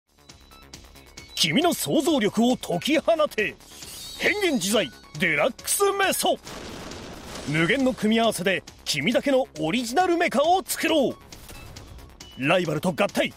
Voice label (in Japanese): おもちゃナレーション